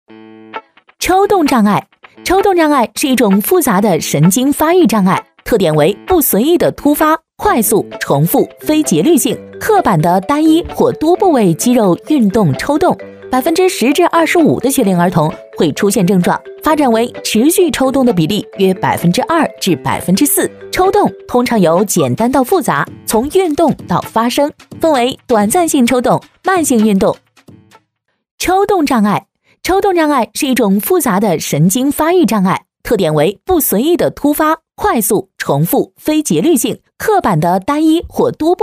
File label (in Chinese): 女 MG动画 样音